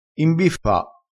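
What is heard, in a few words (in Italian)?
"imbiffa", crespinese dialettale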